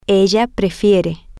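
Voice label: she prefers, spanish, female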